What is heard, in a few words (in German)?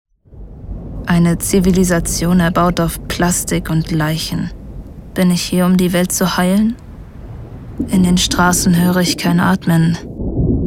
Gedankenstimme; LOFT VOICES